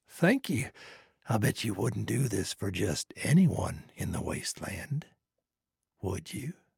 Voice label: trader male like quest accepted